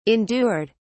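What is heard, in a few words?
"endured", British English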